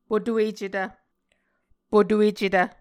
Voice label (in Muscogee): "potuecetv", Muscogee